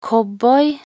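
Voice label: "cowboy", Norwegian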